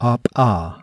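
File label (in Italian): Eiettive Bilabiale, consonanti non polmonari; IPA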